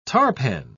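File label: "tarpan", British English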